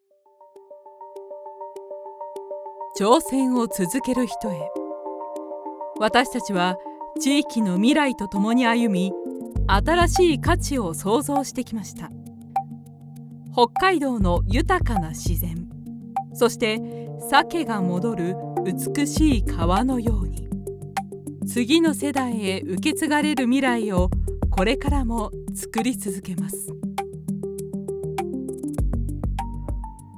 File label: Corporate Business